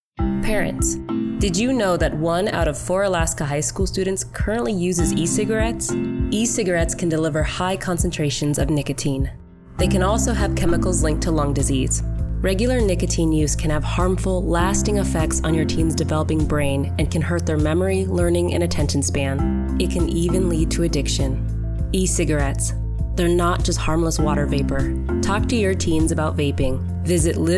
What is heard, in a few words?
E CIG RADIO